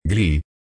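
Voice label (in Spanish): "gli", Spanish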